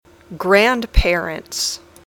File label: "grandparents", English